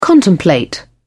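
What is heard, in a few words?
"contemplate", British English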